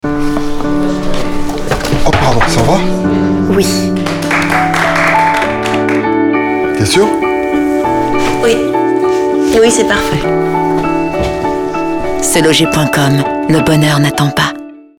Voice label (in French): emotion simple